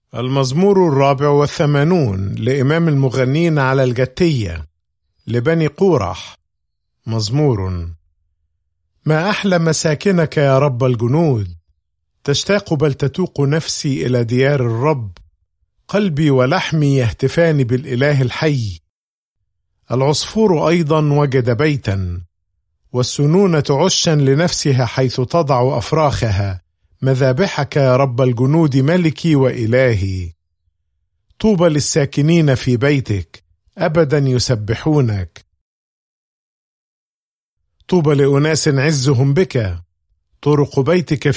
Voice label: Psalm, arabic bible; psalms